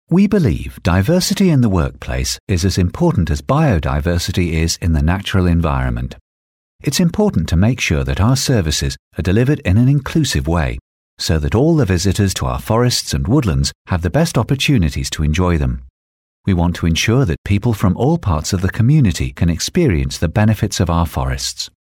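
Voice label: English, British, male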